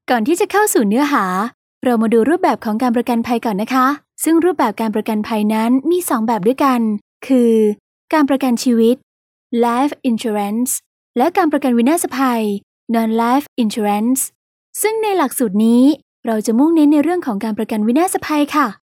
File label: Thai, vo